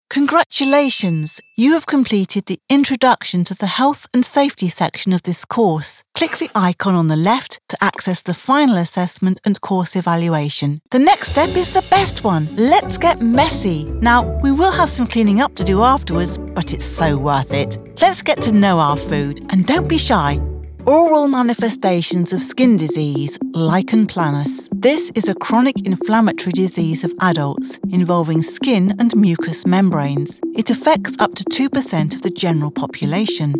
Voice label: E-Learning